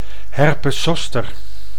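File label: "herpes zoster", Dutch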